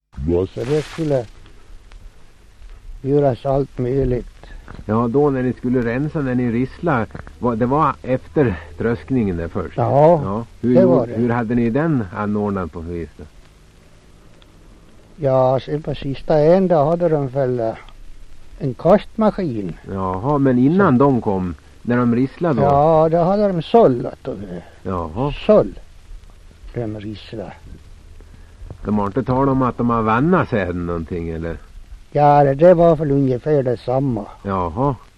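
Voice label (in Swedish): Hed Västmanland, svenska sagor dialekter; Folkminnen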